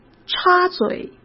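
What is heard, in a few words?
"插嘴", Mandarin Chinese; chinese flashcards; hsk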